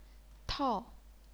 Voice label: "tao4", chinese pinyin